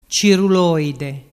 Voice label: "ciruloide", dialettu